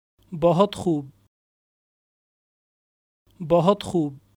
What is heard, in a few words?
Well done, Urdu